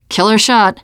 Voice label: nice shot, teen girl; player; survivor; voice